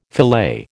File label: "filet", American